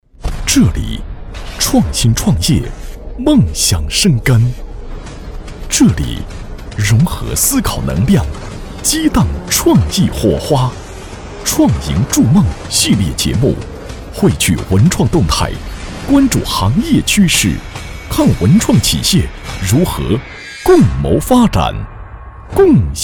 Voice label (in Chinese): 男 大气预告 创赢筑梦